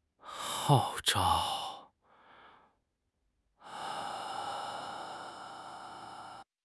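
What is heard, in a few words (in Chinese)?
"号召", Chinese; HSK; HSK6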